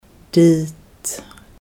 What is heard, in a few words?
"there" standard